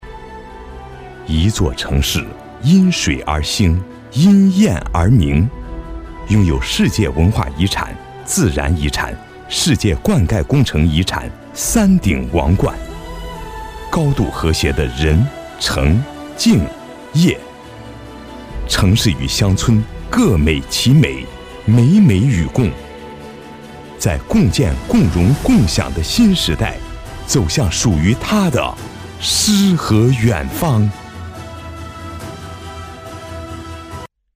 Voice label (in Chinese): 男 宣传 都江堰